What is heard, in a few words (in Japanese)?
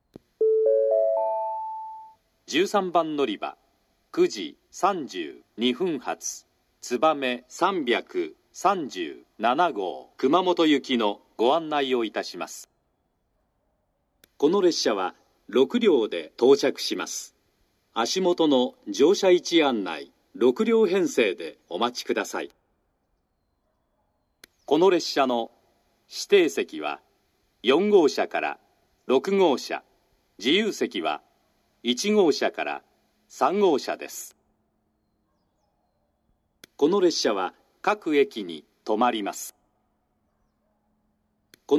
13番のりば案内放送, 新幹線ホーム; 新鳥栖; つばめ337号 熊本行き; 熊本で「さくら409号 鹿児島中央行き」に接続